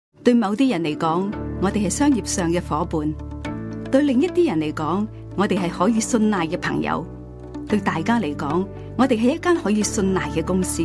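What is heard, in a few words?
Cantonese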